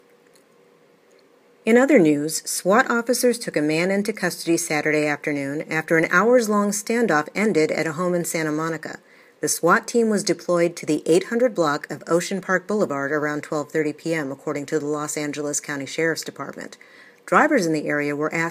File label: News Anchor